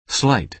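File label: "slight", American English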